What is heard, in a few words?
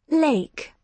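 "lake", British English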